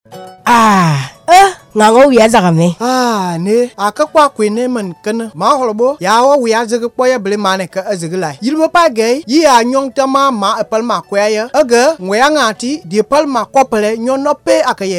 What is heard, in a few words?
SPOT COVAX, GUERZE